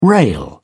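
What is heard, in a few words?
"rail", British English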